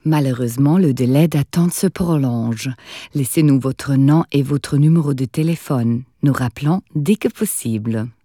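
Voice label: French, Freundlich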